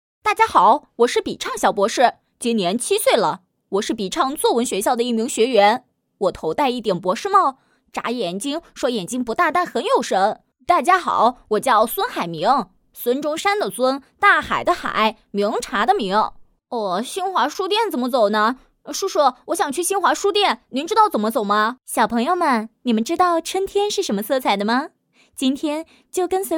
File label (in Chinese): 角色男童女童年轻教师配音